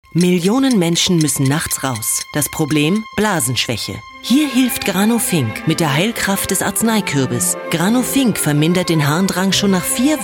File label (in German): Werbung Dynamisch